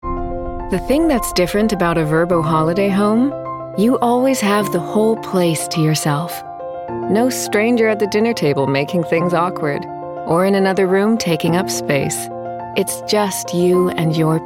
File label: Commercial; VRBO